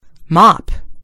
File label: "mop", en GB; gcompris